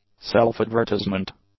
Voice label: "self advertisement", English; nouns